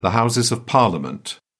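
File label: "houses of parliament", British English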